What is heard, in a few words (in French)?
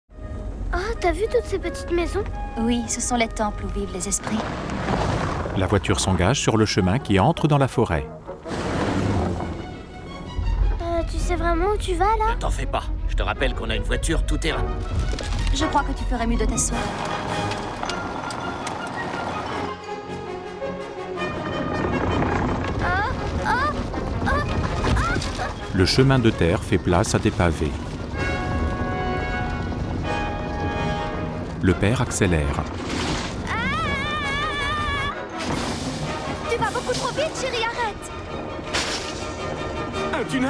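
voix off audiodescription; voyage chihiro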